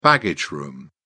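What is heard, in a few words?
"baggage room", British English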